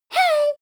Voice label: girl "hey"

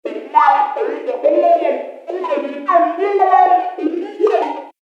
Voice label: alien speech

